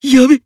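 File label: Voice Emotion Damn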